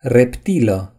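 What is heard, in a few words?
"reptile", Romanian; gcompris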